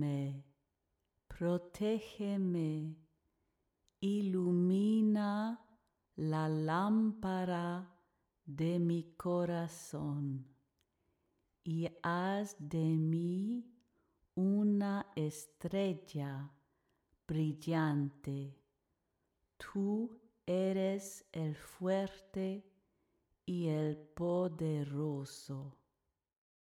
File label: pronunciation slow